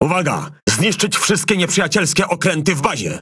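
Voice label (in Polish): MAIN TASK PHRASE, Polish; PVE; World Of Warships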